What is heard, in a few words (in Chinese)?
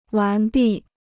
"完毕", Chinese